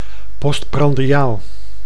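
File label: "postprandiaal", Dutch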